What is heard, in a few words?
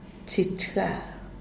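"chich ga", Zapotec, Díaz Ordaz; silencio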